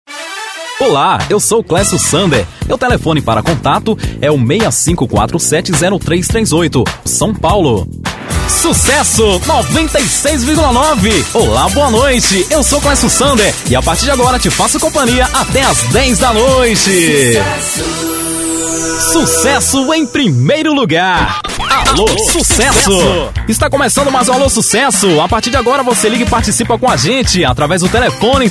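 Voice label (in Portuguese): piloto locução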